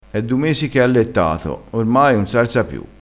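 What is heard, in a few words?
"allettato", Italian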